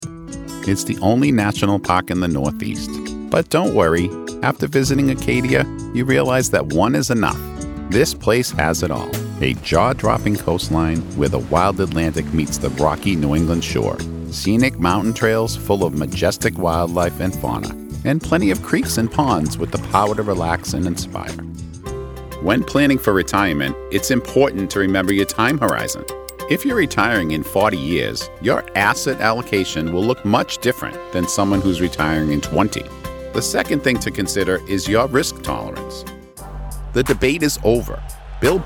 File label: Narration